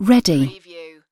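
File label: "ready", British female; lynne publishing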